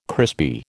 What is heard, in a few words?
"crispy", American